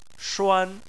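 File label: "shuan" tone 1, Chinese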